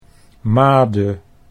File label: "made", Dutch